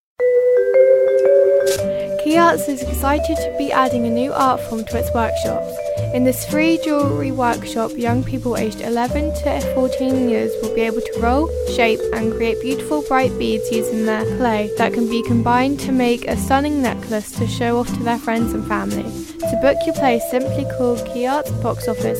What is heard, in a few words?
waves commercial